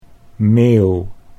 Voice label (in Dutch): "meeuw", Dutch